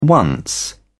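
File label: "once", English, British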